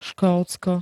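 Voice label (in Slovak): "Škótsko", Slovak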